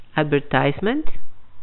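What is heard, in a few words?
"advertisement", Canadian female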